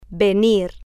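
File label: "venir" Spanish, female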